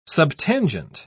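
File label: "subtangent", British English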